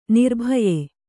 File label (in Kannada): "ನಿರ್ಭಯ", Kannada